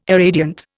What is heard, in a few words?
"irradiant", Indian